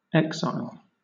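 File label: "exile", British English; pronunciations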